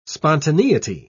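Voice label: "spontaneity", British English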